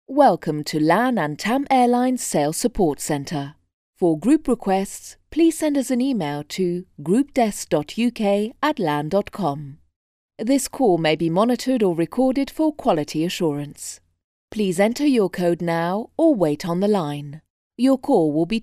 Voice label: locutora inglesa english female voice talent

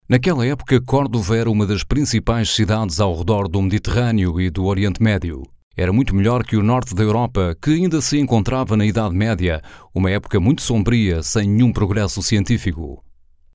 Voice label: locutor portugal portuguese voiceover

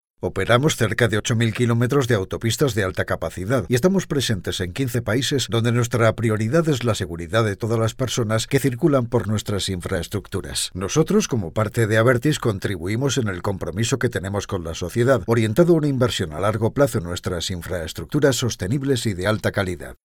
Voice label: locutor Spanish voiceover

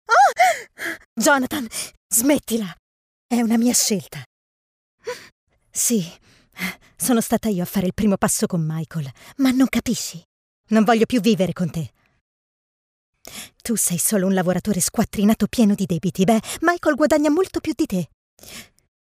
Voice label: locutora italiana italian voiceover

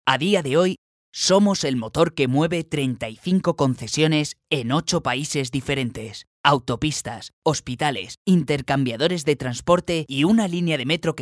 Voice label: locutor spanish voiceover

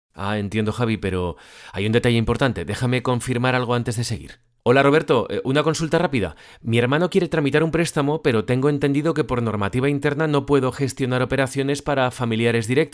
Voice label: locutor spanish voiceover

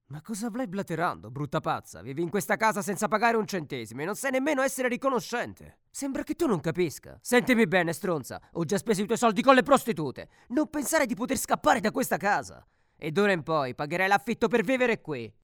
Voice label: locutor italiano Italian voiceover; locutores italianos

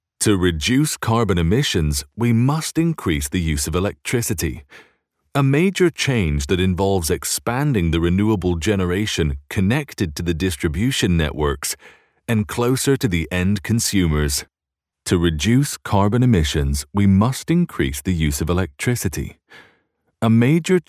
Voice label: locutor ingles english voiceover, locutor inglés; Locutores británicos; Locutores de Inglaterra

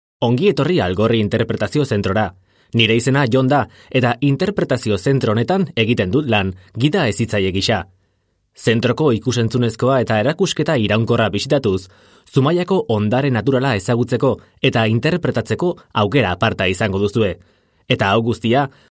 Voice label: locutor euskera basque voiceover; Locutor vasco, locutor euskera, basque voice over talent

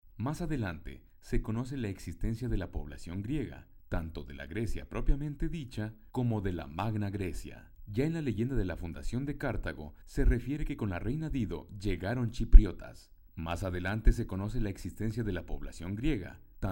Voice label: locutor mexicano mexican male voiceover; locutor mejicano

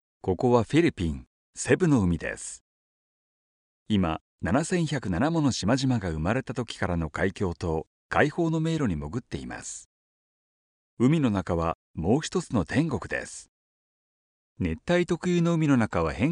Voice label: locutor japones japonese voiceover; Japanese male voice over

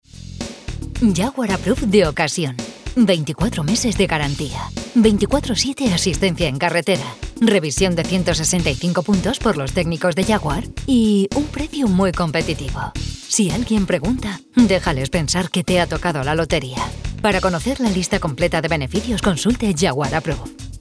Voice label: locutora spanish voiceover

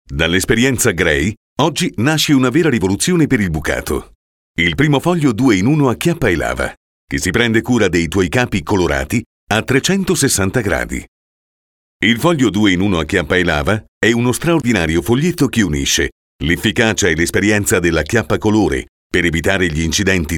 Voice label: locutor italiano italian voiceover; Voix off

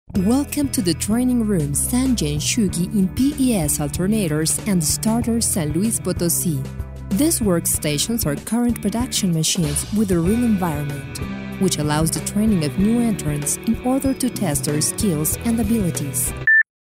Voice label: english mexican voiceover

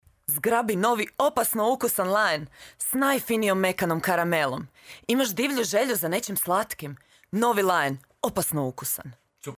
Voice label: croatian voiceover locutora croata

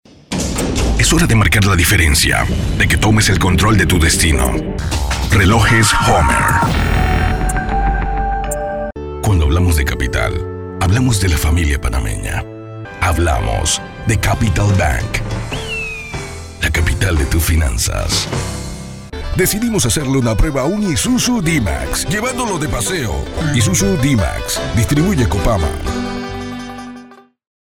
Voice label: locutor panama panamanian voiceover; locutor de Panamá

